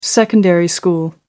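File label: "secondary school", British English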